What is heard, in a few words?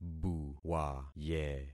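"buwaye", concatenated syllables; Affixing experiment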